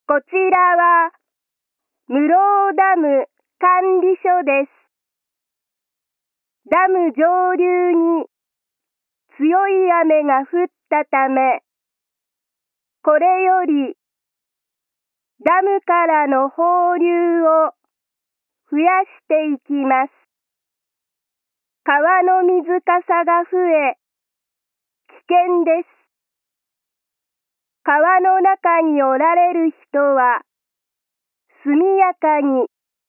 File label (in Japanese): 雨が降り始めてゲート放流を開始する時の警報, 音声放送; 室生ダム管理所版; kizugawa